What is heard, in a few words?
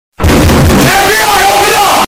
loud fbi open up